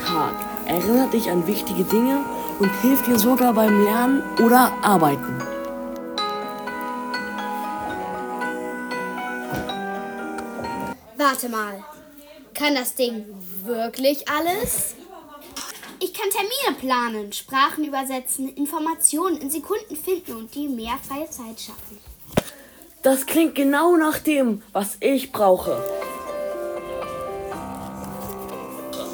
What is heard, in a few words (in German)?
Werbung in der Zukunft